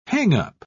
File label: "hang-up", pronounce